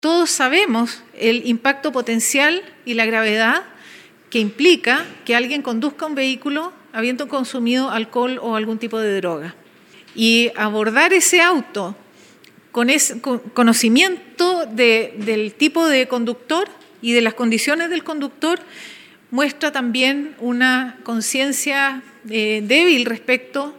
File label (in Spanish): cuña; HUTT